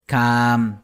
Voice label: "Kaan", Maya; víbora

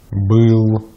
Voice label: "был", Russian; Consonantes en ruso; easypronunciation

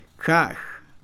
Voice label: "verde", Mam; colores; INALI

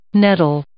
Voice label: "nettle", English

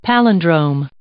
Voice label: "palindrome", English

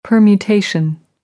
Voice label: "permutation", American English; ɝː - ɜː

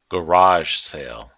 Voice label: "garage sale", English; noun

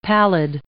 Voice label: "pallid", English

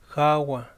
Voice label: "vapor", Yaqui

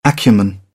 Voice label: "acumen", British; AmericanBritish

